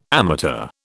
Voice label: "amateur", British

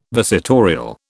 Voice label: "visitorial", British

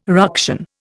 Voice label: "ruction", Indian

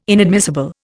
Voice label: "inadmissible", Indian

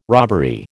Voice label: "robbery", American

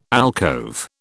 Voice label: "alcove", British

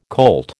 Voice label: "colt", American

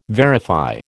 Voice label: "verify", American

